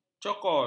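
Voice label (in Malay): "cokol", Malay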